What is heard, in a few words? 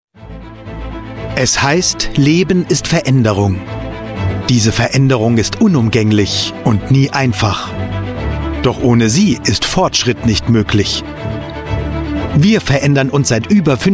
german voice over male narrator